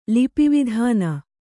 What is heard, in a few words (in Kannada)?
"ಲಿಪಿವಿಧಾನ", Kannada